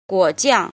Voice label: "jin", Chinese

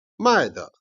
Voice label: "jian", Chinese

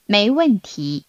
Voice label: Mandarin Conversation

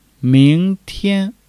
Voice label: "ming2 tian1", Chinese

